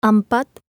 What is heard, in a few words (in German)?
zaehlen Indonesisch